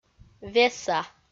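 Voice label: "веса", Komi, female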